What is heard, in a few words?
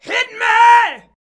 "HIT ME", SHOUTS; Best Service; Hyper Dance